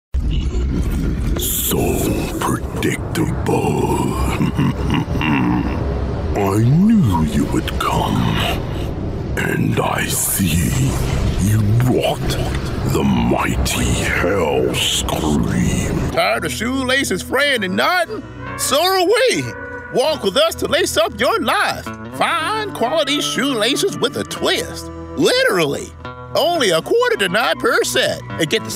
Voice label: men video games

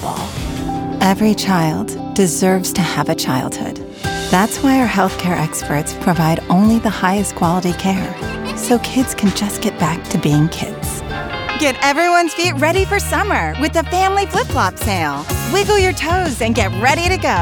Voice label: women commercial

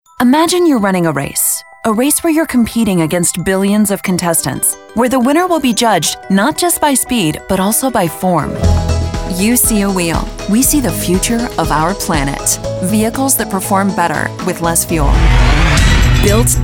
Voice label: women corporate

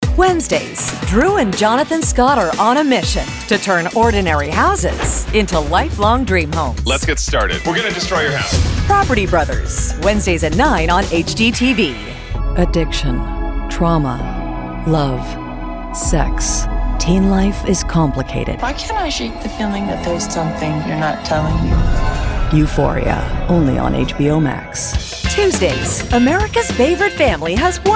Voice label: women promos

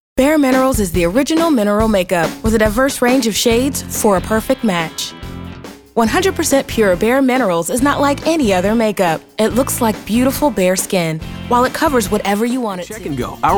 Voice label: african american commercial

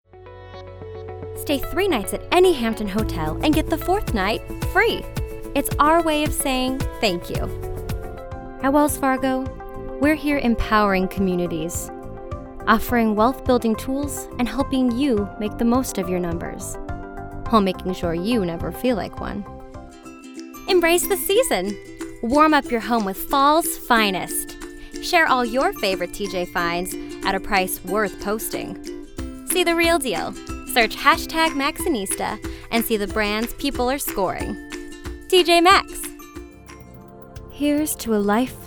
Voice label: women commercial

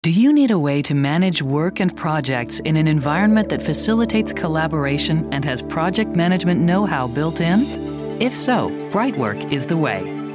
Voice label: women narration

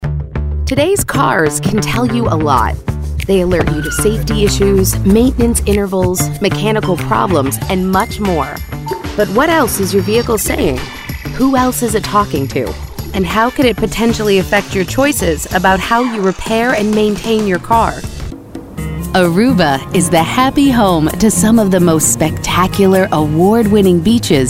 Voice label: women corporate

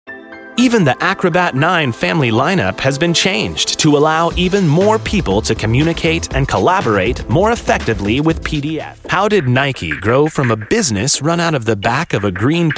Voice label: men narration

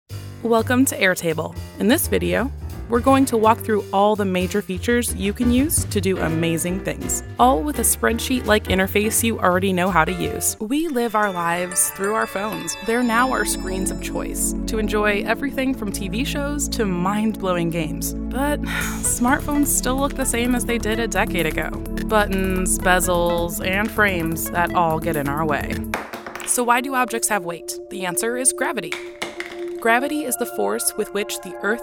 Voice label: women narration

